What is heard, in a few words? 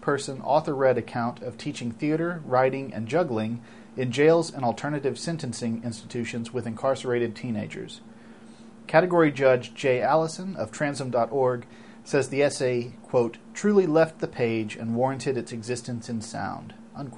Narrative